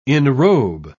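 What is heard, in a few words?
"enrobe", British English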